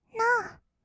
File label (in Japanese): "ノー", kawaii voice; ASMR; 色々なワンショット